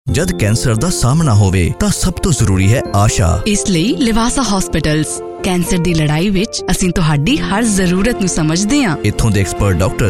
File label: Punjabi